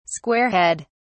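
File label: "squarehead", British English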